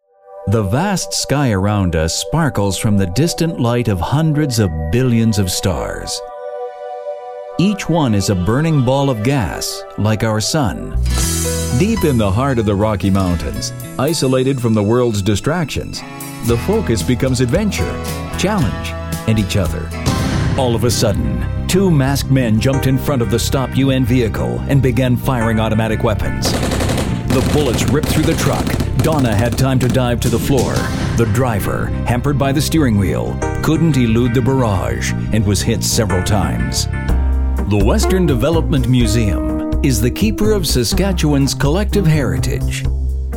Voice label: Documentary Narration